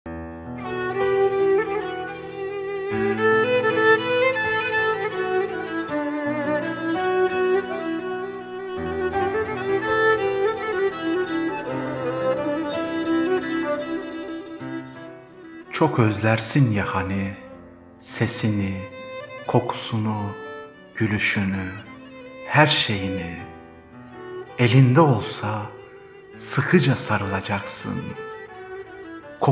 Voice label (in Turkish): siir duet anne siiri duygusal